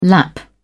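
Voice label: "lap", British English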